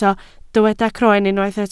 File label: "croen", Welsh